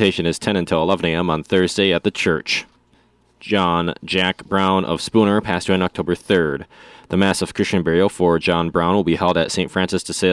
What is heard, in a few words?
Funeral Announcements